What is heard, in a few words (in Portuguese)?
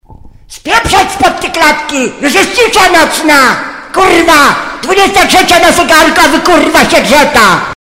falando alemao; alemao liga